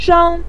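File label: "shang", Mandarin Chinese, neutral tone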